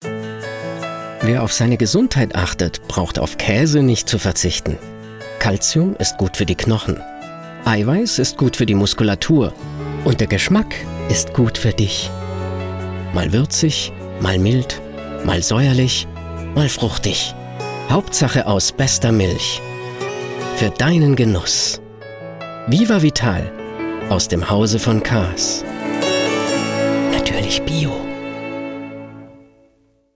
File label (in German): Werbung; VivaVital